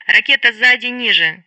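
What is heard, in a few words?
Speech Sound Russian Common Messages; Betty; Missile6OClockLow; DCS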